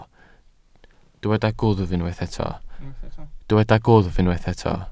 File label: "gwddf", Welsh